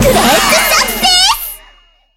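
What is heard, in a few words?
success, Japanese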